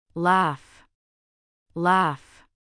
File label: "laugh"